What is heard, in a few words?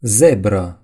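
"zebra", Romanian; gcompris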